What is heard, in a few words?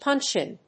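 "punch in", English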